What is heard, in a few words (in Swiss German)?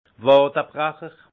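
"wadebrecher", alsace Haut-Rhin; vin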